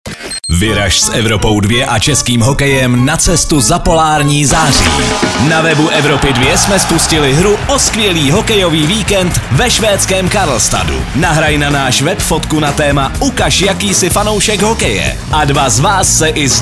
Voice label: promo